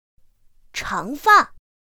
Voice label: "chángfà", pinyin; pinyin2; pinyinji